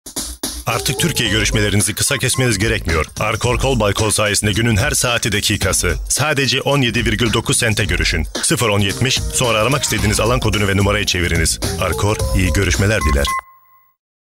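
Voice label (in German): Turkish Werbung Freundlich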